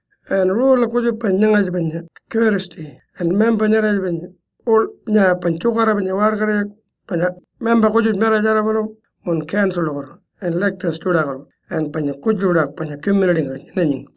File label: Code of Conduct COURTESY Pitjantjatjara